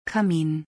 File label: Stove or fireplace in German